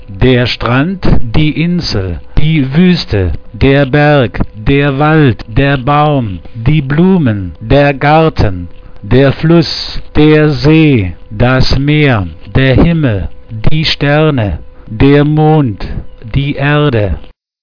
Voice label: german nature